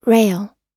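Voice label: "rail", American English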